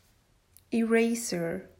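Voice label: "Eraser", English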